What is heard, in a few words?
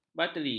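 "bateri", Malay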